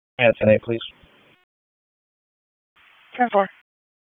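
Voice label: Police Southwest District Dispatch; Raleigh; wake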